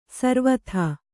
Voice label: "ಸರವತ್ತು", kannada

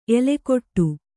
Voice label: "ಎಲ್ಲೆಗೆಡು", Kannada

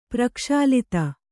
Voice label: "ಪ್ರಕ್ಷಾಲಿತ", Kannada

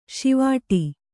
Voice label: "ಷೀವಟ", Kannada

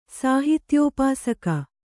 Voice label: "ಸಾಹಿತ್ಯೋಪಾಸಕ", Kannada

